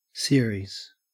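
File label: "series", Australian English; pronunciations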